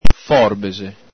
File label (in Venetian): "forbexe", Veneto